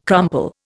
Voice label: "crumple", Indian